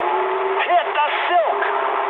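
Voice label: English bailout mood high; speech; War Thunder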